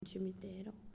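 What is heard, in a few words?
"Cimitero", Italian, female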